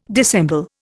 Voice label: "dissemble", Indian